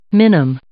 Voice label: "minim", English

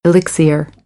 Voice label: "elixir", American English; ɚ - əɹ

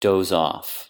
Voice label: "doze off", phrasal verb; English

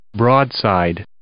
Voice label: "broadside", English

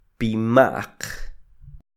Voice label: "pima'q", Quiché; gruesos(plural predicativo); thick(plural predicative)

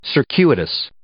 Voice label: "circuitous", English

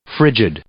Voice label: "frigid", English; adjective

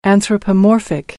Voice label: "anthropomorphic", American English; ɔːr - ɔː

